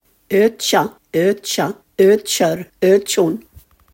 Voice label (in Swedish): "ötjâ", daladialekt; gagnsmal